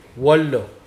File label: "forest", Mokilese